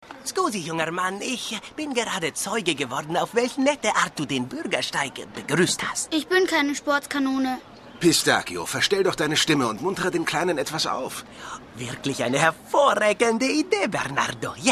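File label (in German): italienisch, Charge, Sprecher, Männer; Meister der Verwandlung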